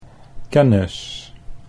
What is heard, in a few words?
"kennis", Dutch